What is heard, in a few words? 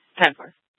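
police dispatch, Scottsdale PD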